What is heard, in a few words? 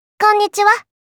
"Konnichiwa", Kawaii Voice, Greeting